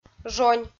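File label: "жонь", Komi-Zyrian, female; audio dict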